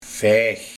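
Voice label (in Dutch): "Veig", dialect; Slaan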